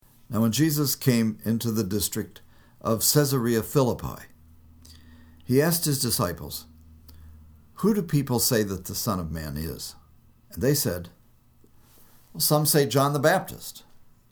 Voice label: storytelling